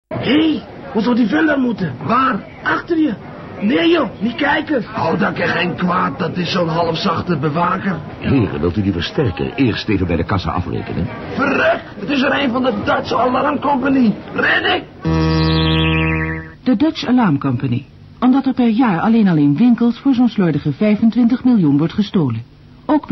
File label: commercial